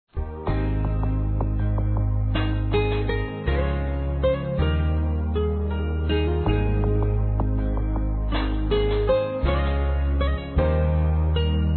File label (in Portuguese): FILHA, masc; Desculpas; Telemensagens 2017